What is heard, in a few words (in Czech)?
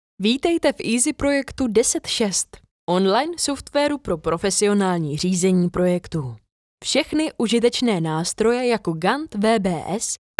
Průvodní hlas, zensky voiceover; chytlavy zensky voiceover; příjemný ženský hlas